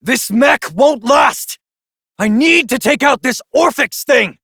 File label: Adult Op, en; Warframe; New War